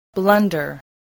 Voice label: "blunder", spelling